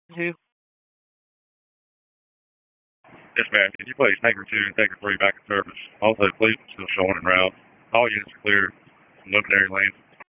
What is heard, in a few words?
PS TAC, p25; wake p25